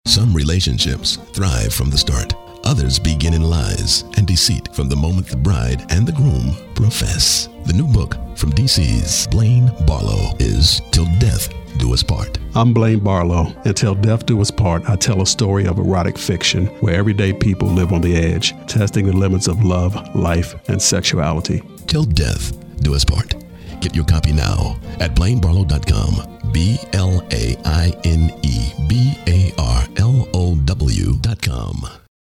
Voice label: SPOT